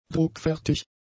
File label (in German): "druckfertig", German; adjectives